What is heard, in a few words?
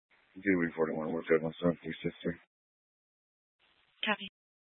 Dispatch, scanner